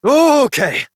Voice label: Horse Stop Adult; Duviri

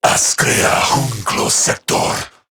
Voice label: Patrol Corrupted Grineer Male

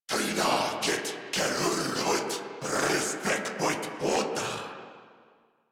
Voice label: Attack Corrupted Grineer Male; Warframe; Battle Barks

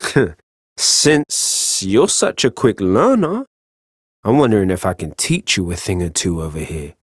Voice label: Ambient Barks, en; Warframe; Mall Romance; Quincy

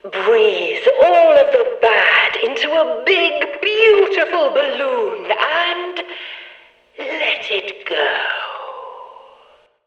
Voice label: Background Announcements

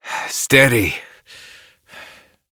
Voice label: Horse Calm Adult; Duviri

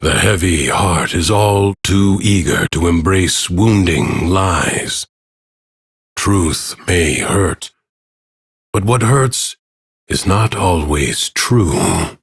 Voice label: Ambient Bark Sad; Duviri; Teshin

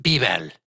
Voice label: Ost Male en; Cetus

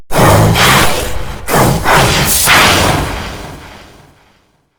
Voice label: Golem Boss Speak Combat Taunt; Warframe

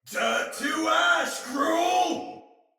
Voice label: Attack Heavy, Soldier Male; Barks Battle; Sinecure Soldier Male B

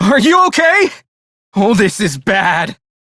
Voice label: Crew Died, Male; Warframe; Railjack; Perrin Sequence

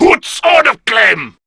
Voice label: Player Spotted, Male

